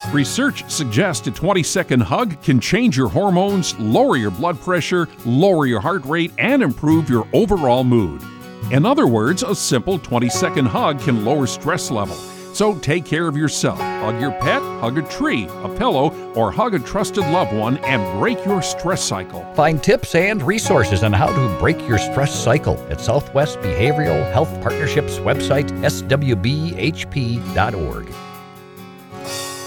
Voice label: Male